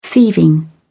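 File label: "thieving", British English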